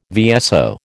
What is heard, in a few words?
"VSO", American